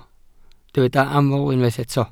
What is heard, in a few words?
"aml", Welsh